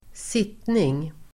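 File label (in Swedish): "sittning", Swedish; Uttal: [²s'it:ning]; sittning substantiv, meeting, sitting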